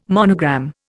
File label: "monogram", Indian